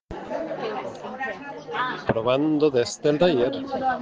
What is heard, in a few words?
Voice message